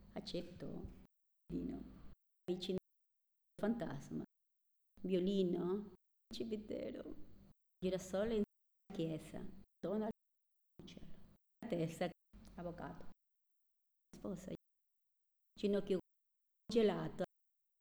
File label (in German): Zusammengefugte Worter Schnell Italienisch